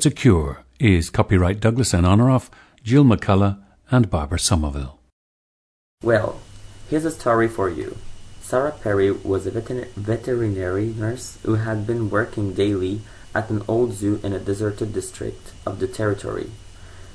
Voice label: quebec

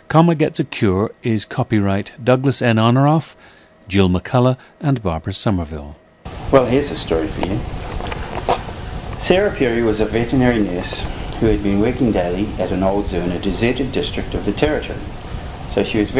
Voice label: New Zealand

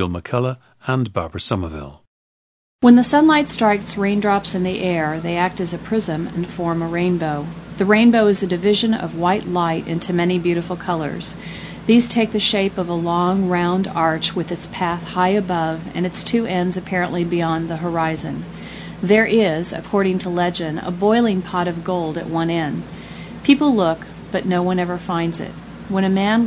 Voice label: missouri, dialects

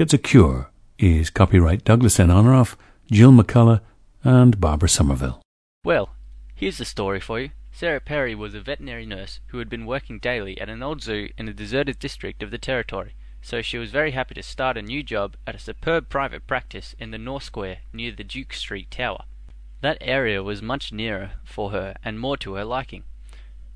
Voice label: australia

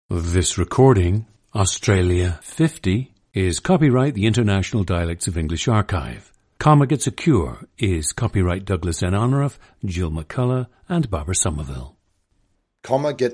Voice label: australia; Australia 50; International Dialects of English Archive; a 49-year-old man from Medindie, near Adela

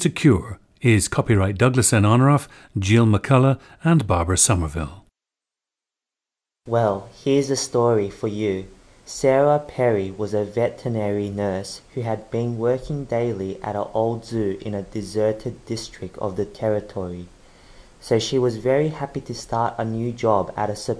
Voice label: hubei

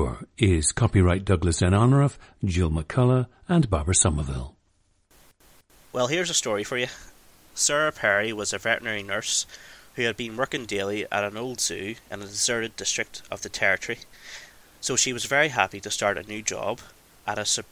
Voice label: northern ireland

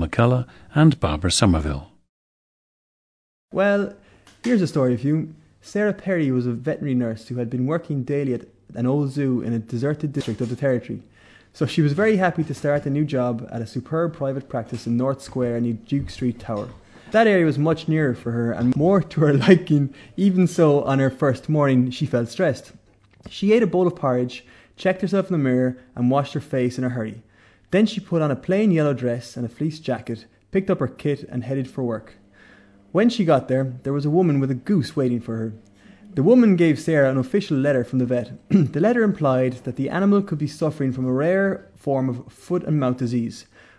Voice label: ireland, dialects

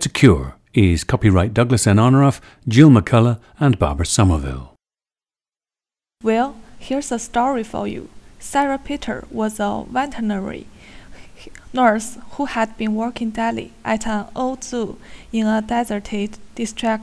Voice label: heilongjiang

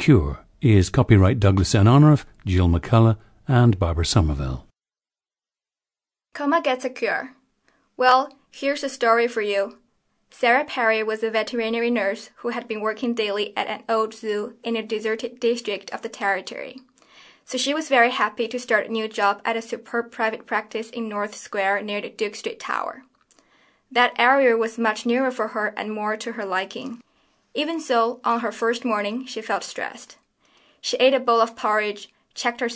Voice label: gansu

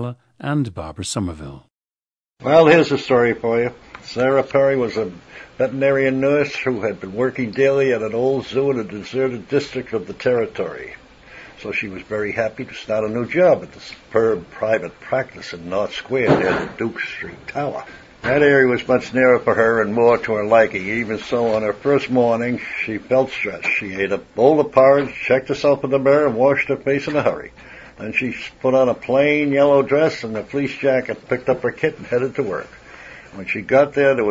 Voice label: massachusetts, dialects

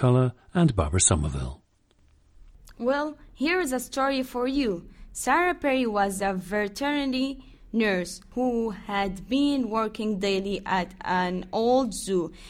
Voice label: saudi arabia, dialects

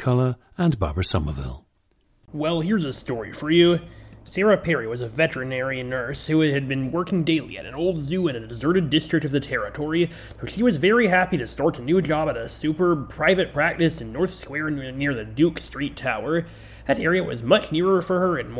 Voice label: kentucky, dialects

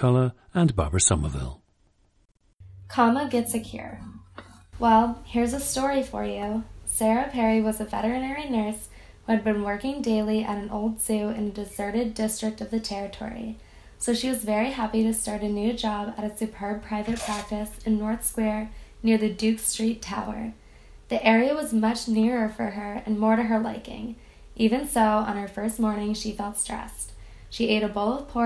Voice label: new jersey, dialects

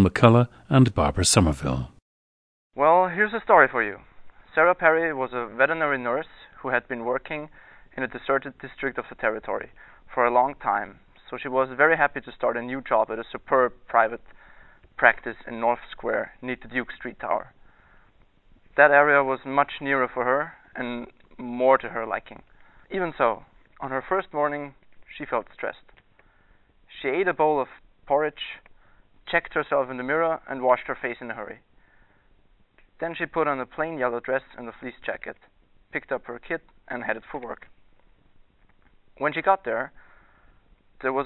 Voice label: austria, dialects

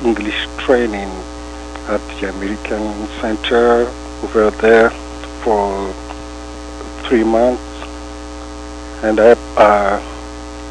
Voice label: madagascar, dialects

